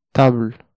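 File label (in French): "table", French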